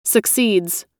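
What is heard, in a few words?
"succeeds", American English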